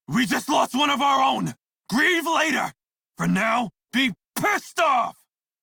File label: Crew Died Male en; Dialog; Warframe; Railjack Crew; Red Veil